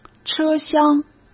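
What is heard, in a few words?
"车厢", Mandarin Chinese; hsk; chinese-flashcards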